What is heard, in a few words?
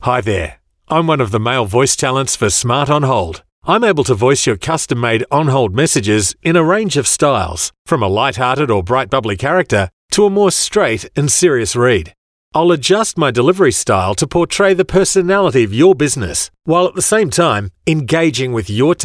MALE